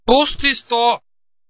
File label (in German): "Post is do", die Post ist da, bayrisch